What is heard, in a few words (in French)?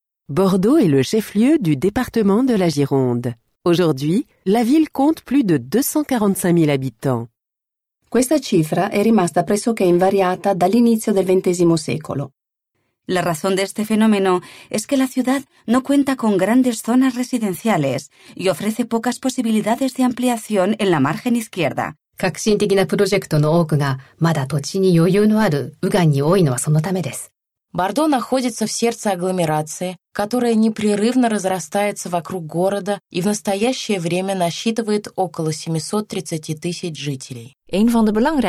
citytour multilingue